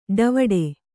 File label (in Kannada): "ಡವುಡೆ", Kannada